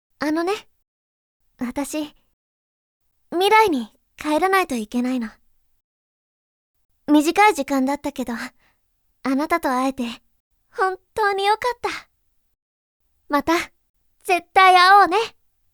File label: girl